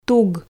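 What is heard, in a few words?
"туг", Komi, female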